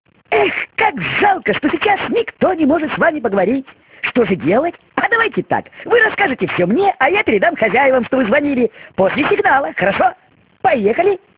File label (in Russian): Avtootvet